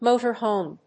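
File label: "motor home", English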